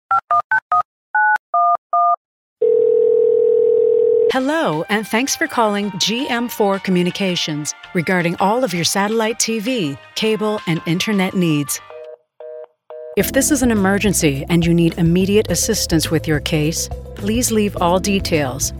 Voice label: PHONE IVR